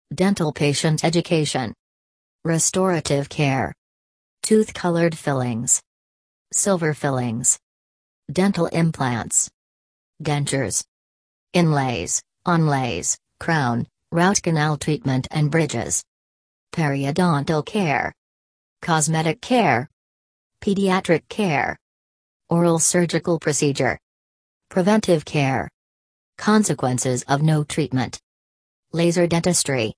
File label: dental patient education